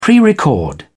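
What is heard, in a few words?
"prerecord", British English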